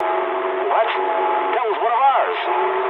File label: eng player killed ally mood low, speech eng; War Thunder